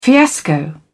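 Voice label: "fiasco", English, British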